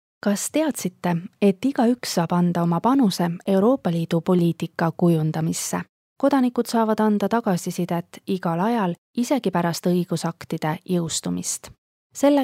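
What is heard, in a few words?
Estonia, female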